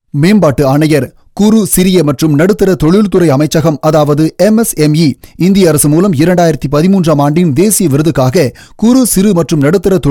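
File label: Tamil male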